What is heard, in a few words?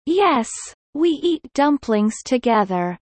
Kelly low; spring festival